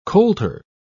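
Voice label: "coulter", British English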